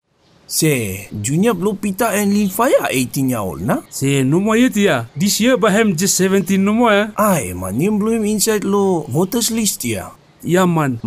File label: ADVERT; SIEC OBJECTION